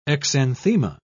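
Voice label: "exanthema", British English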